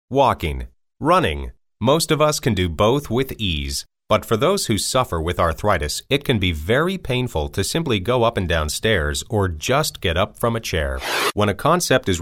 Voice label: NARRATION